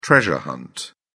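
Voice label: "treasure hunt", British English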